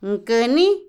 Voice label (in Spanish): "negar", Otomí, mujer; Habla; Vocabulary; INALI